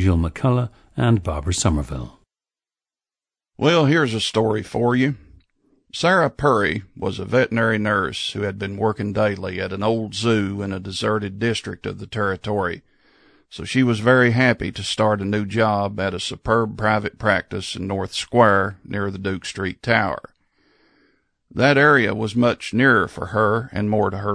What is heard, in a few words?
kentucky, dialects